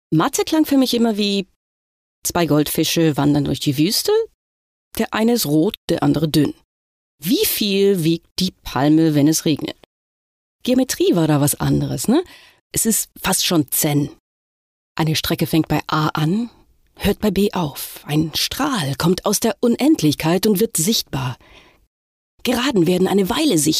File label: female german voice over talent upbeat